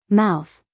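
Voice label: "mouth", American English, female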